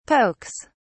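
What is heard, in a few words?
"pokes", British English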